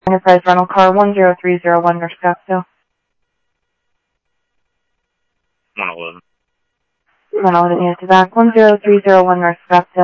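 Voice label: police department, scanner audio; ThompsonPeakHigh; SCTSDLE PD - PD2; ScottsdalePolice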